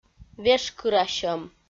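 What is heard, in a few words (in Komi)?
"вешкырасьӧм", Komi, female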